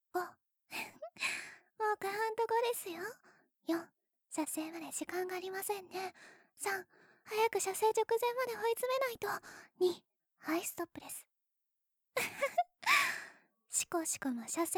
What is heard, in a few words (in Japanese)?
カウント責め, ASMR